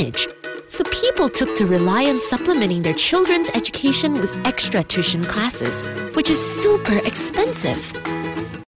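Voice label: Malay, female; voice over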